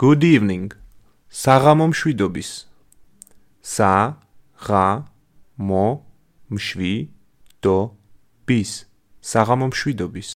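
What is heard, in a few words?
Georgian Good evening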